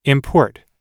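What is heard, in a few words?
"import", English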